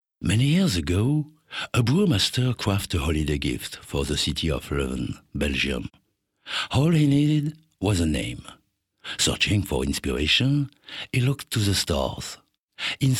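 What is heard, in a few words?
French English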